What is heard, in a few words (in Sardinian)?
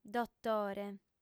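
"dotore", female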